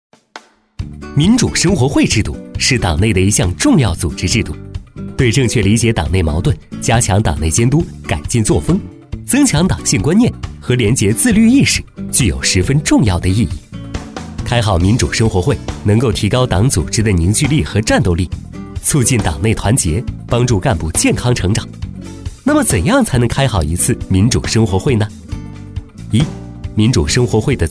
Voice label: 男 轻松欢快; 民主生活会; MG飞碟

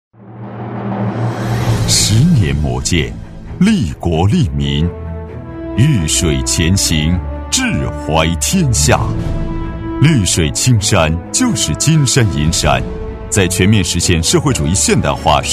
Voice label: 男 企业专题 大气浑厚

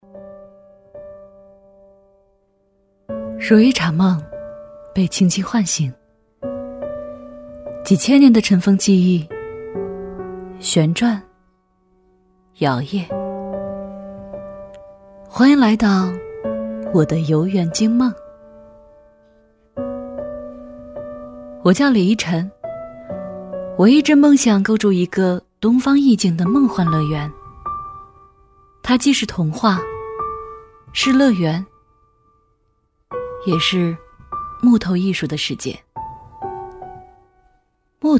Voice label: 女-走心旁白; 美学园林雕塑; 女B318-走心旁白-《美学园林雕塑 》

